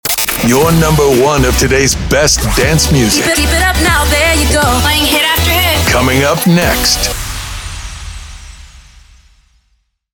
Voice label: Sweeper Back to the hits